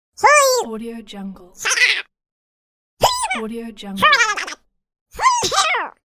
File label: minion jump voice